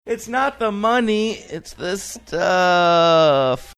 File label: saying its not the money its the stuff funny